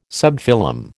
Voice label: "subphylum", American